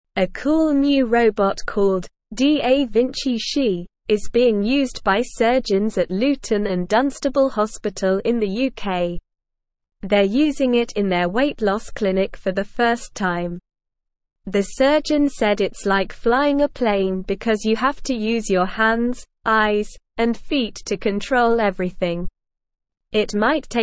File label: English Newsroom Upper Intermediate SLOW Reading; New Robotic System Speeds Up Weight Loss Surgeries